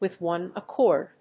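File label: "with one accord", English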